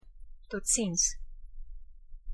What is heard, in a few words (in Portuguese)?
pronuncia adeus africaner